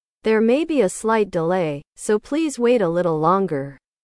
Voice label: english